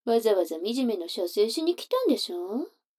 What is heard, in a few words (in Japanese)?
女の子 台詞, ASMR